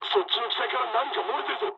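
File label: Japanese tank damaged mood low; War Thunder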